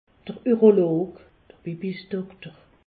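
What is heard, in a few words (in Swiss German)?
"urolog", Alsace, Haut Rhin; medecine; urologue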